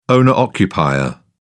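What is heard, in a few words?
"owner-occupier", British English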